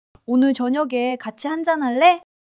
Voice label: joy

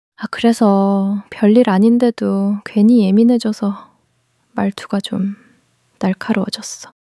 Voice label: anger

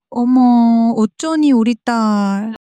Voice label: sadness, monologue

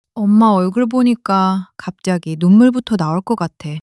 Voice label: sadness; monologue

